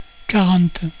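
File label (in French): "quarante", French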